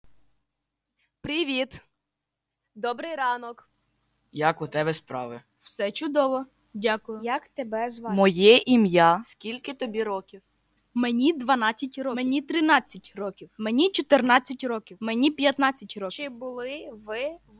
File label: Ukrainian